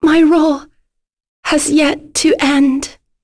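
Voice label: Vox Dead, voices heroes English; Lorraine; kingsraid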